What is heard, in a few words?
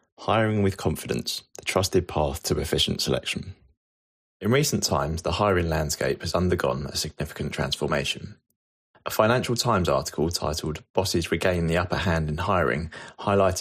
voiceover